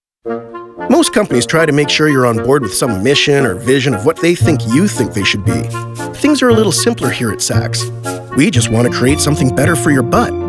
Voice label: CORPORATE